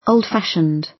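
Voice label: "old-fashioned", British English; Technology; Công nghệ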